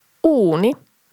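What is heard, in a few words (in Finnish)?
"uuni", Finnish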